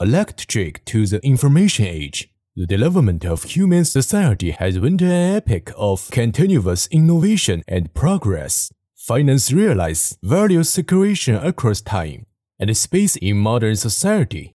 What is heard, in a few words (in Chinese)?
男 英语 passage